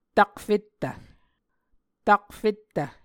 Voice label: "takfettv", Muscogee